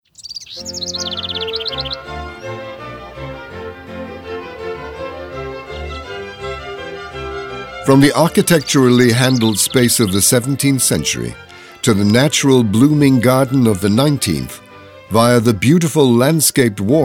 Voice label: audioguide en adulte; jardin; modave